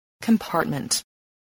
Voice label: "compartment", english